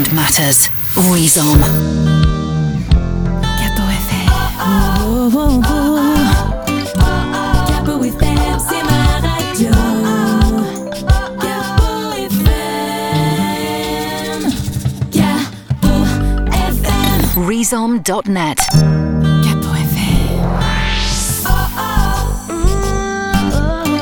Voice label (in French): Pack de Jingles repiquage - France; Gapeau Fm; jingles repiquage france rechante